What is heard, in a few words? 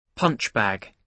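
"punchbag", British English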